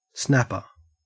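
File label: "snapper", Australian English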